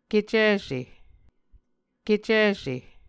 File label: "kecise", Muscogee